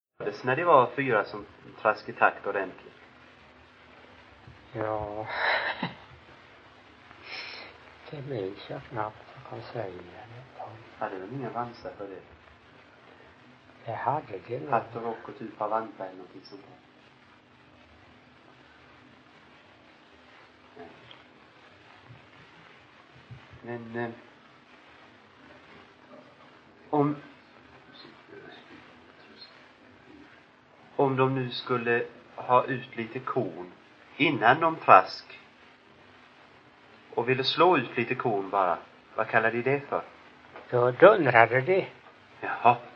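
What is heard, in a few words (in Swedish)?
Ingatorp Småland, Svenska sagor dialekter; Folkminnen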